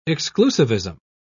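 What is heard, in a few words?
"exclusivism", British English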